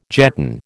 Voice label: "jetton", American

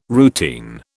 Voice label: "routine", British

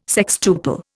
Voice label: "sextuple", Indian

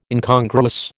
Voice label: "Incongruous", American

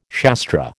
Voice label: "Shastra", American

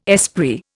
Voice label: "esprit", Indian

